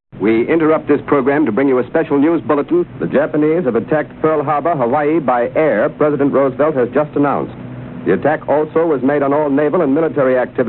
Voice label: radio announcement of attack